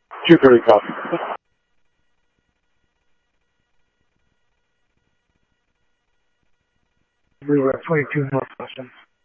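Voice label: Sheriff West County, scanner audio trunk; MCSO - WEST Sheriff-WestCnty; ThompsonPeakScot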